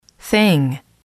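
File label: "thing", English, female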